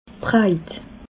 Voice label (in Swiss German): "breit", alsace